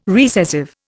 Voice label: "recessive", Indian